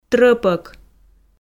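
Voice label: "трӧпӧк", Komi, female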